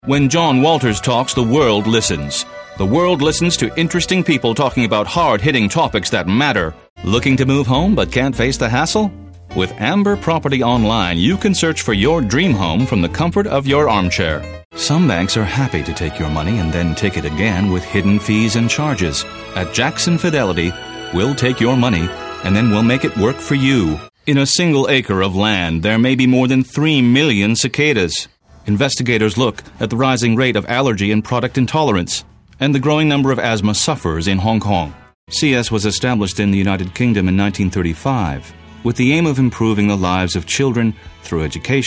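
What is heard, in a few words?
American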